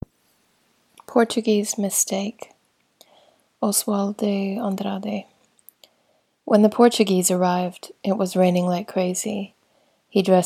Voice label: portuguese